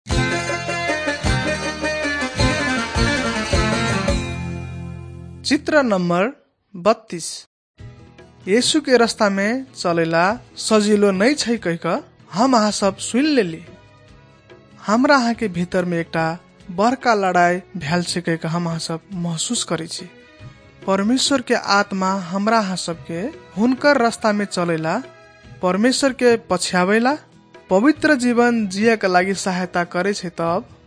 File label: maithili thetiya